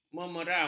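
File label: "memeram", Malay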